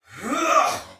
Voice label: Attack Heavy Soldier Male; Sinecure

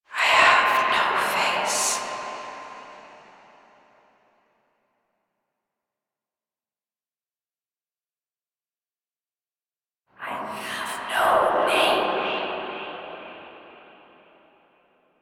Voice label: Grandmother Tale Story; Warframe; Naberus; Dagath

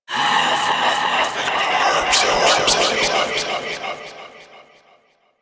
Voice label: Stalker Assassin Whispering

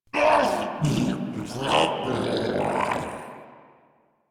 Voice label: Death Ghoul Saw, en; Grineer Ghoul Sawman

